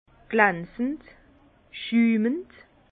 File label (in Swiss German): "schumend", Bas Rhin; vin; perlant